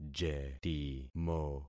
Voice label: "jetimo", concat syllables; Affixing experiment